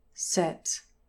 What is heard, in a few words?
"set", English pronunciation